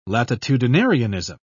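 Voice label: "latitudinarianism", British English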